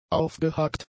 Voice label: "aufgehakt", German; adjectives